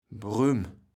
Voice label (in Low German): "Brüm", kaevels platt